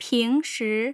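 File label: "pingshi", Mandarin Chinese, tone pairs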